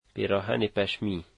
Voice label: sweater, Dari